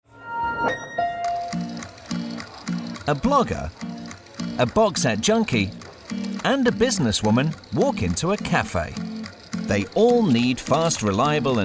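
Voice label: British English natural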